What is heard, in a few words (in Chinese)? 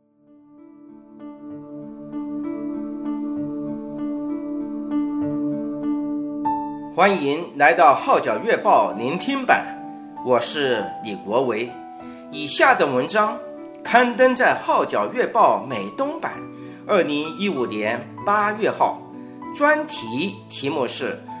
Mandarin